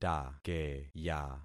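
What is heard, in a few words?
"takeya", concatenated syllables; Affixing experiment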